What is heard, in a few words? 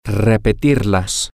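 Spanish, to repeat it, male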